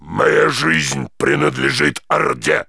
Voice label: Grunt Ready